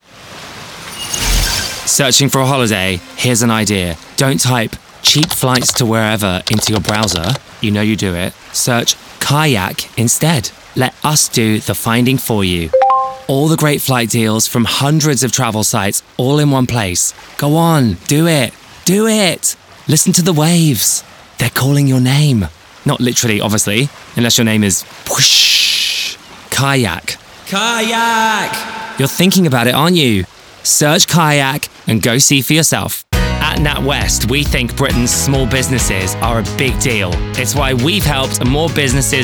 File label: Commercial, Relatable, friendly, curious, funny, fresh